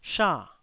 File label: "sha4", taiwanese Syllables